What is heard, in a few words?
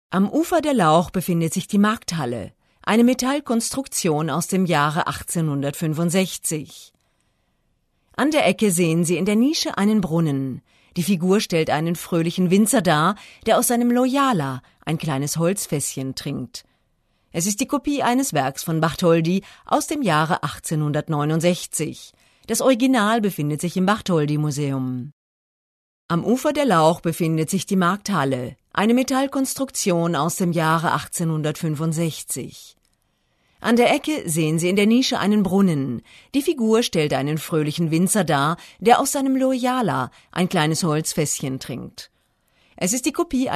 German F Docu